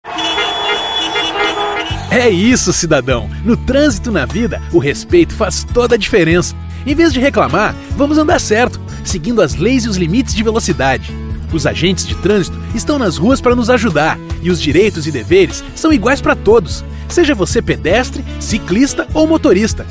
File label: Spot